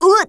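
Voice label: voice unbalance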